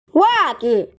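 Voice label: "wa zi" Chinese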